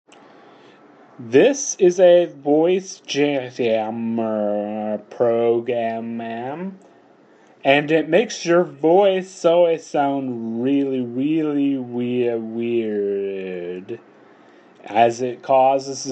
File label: speech jammed